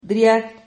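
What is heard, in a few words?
"driad", Spanish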